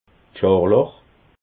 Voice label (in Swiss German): "scharlach", alsace